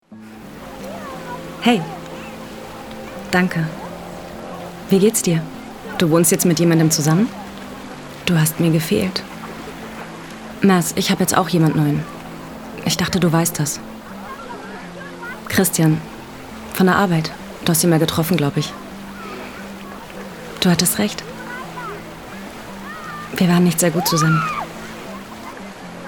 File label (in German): Synchron dänischer Arthaus